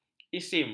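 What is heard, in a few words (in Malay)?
"isim", Malay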